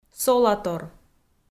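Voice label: "солатор", Komi, female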